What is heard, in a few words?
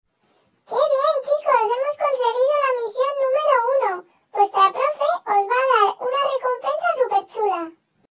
voice changer